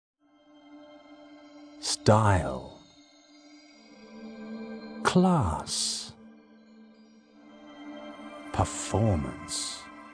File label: commercial